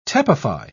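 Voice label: "tepefy", British English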